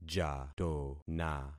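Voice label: "jatona", concatenated syllables; Affixing experiment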